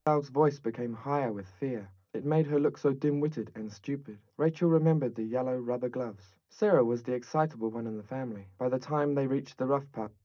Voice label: male 130ms 50cm; SiSEC11; underdetermined

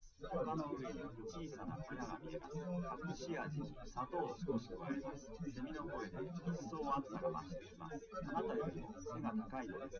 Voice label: test male liverec 250ms 1m src; SiSEC08; SiSEC underdetermined

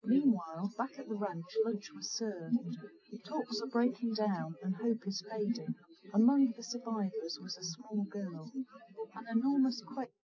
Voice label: female srec 130ms 20cm sim

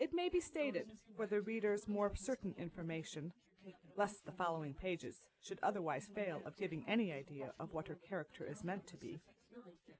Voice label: female4 inst sim

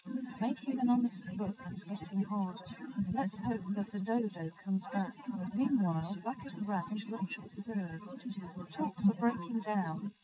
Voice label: female, 130ms, 50cm; SiSEC11; underdetermined

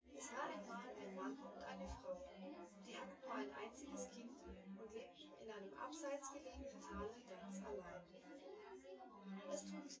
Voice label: female synthconv

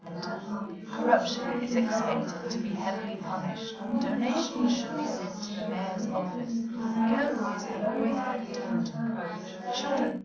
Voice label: female4 380ms 5cm, underdetermined; SiSEC11

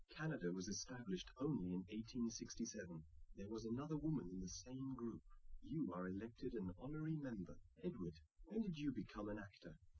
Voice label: male liverec 130ms 1m sim

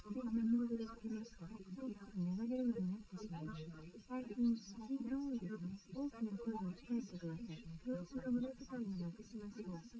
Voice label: female liverec; SiSEC11; underdetermined

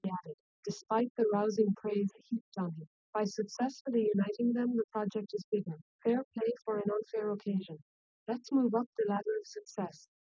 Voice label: female srec 130ms 20cm sim; SiSEC10; underdetermined

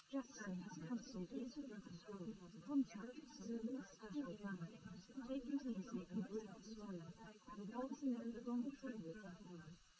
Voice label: female liverec; SiSEC11; underdetermined

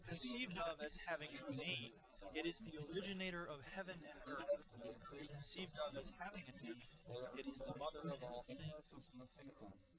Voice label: male4 inst sim; SiSEC08; SiSEC underdetermined

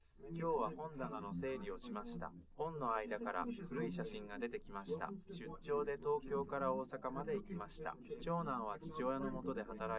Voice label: male liverec; SiSEC10; underdetermined

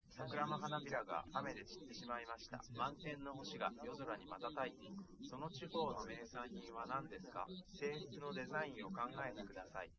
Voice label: test male liverec 130ms 5cm src; SiSEC08; SiSEC underdetermined

